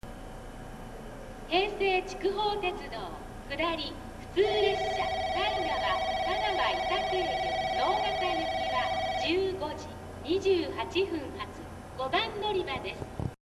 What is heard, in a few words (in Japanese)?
平成筑豊鉄道乗換え放送, 非常にトーンの高い放送; 行橋; 初期型にも引けを取らない、非常にトーンの高い放送でした。なお、このタイプの放送は、行先種別→時刻の順で放送されます。